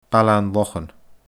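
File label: "Baile an Lochan", Scottish Gaelic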